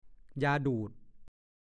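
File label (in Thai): "ยาดูด" ภาษาโคราช; บุหรี่ที่ใช้ใบตองแห้ง หรือกระดาษมวนจากยาเส้น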